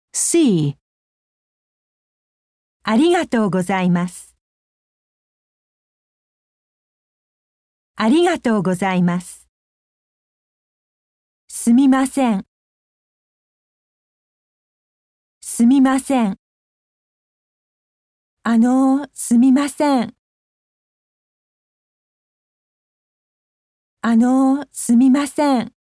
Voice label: Hiragana Voiced Consonants, japanese